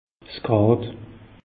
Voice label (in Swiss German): "grad", alsace; meteo